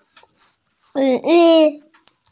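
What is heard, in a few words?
"zhi3hui1" Mandarin Chinese, female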